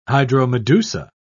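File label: "hydromedusa", British English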